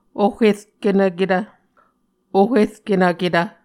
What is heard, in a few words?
"oh erkenvketv", Muscogee